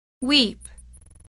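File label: "wheep", English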